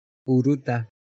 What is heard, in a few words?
"uruta", Tapiete